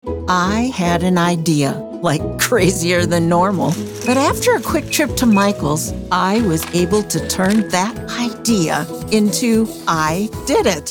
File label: commercial; Vibrant Voices; michaels